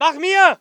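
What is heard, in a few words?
voice german infantry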